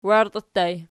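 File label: "wairtii" ergative plural, Archi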